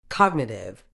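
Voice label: "cognitive", British English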